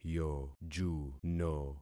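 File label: "yojuno", concatenated syllables; Affixing experiment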